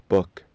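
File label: "book", English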